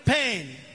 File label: trump Pain; Donald Trump Construction Kit